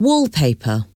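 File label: "wallpaper", English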